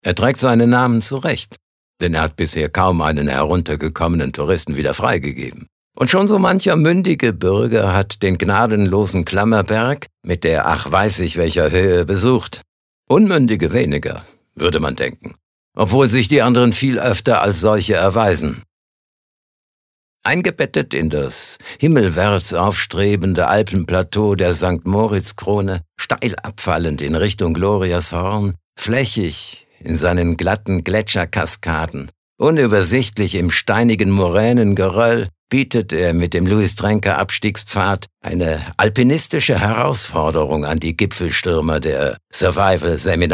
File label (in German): Kommentar Neutral